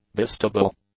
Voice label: "bistable", English; adjectives